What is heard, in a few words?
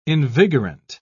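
"invigorant", British English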